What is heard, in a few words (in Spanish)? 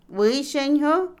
Otomí, mujer; sano, salud; Cuerpo; INALI